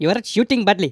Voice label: "traitor", Speech; Worms Armageddon; The Raj